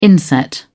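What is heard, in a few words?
"inset", British English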